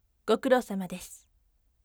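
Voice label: voice gokurousamadesu firm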